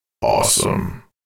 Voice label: awesome, announcer